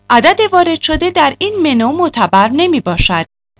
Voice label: Wrong DTMF